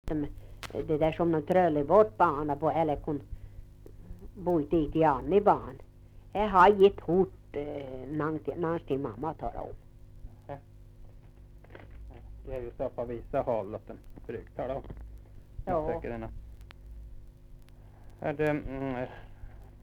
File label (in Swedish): Svenska sagor, dialekter; Folkminnen